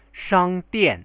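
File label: "shangdian" Chinese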